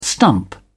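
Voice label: "stump", English, uk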